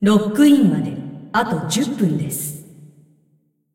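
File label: announcer female tournaments lockin 10min, hu_hu; clash; ja jp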